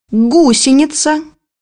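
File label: "caterpillar", Russian; bukvar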